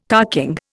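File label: "carking", Indian English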